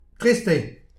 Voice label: "trist eo", distagadur; petit precis de prononciation

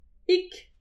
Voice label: "pik", distagadur; petit precis de prononciation

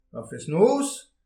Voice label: "ar fest-noz", distagadur; petit precis de prononciation

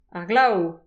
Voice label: "ar glav", distagadur; petit precis de prononciation

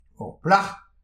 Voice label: "ur plach", distagadur; petit precis de prononciation

